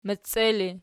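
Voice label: "meccie", ergative, Archi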